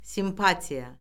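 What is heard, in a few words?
"simpatiya", Azerbaijani; Orfoqrafiya Lüğəti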